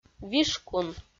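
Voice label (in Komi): "вишкун", Komi, female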